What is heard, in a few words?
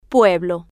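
"pueblo", Spanish, female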